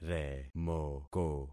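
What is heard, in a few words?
"remoko", concatenated syllables; Affixing experiment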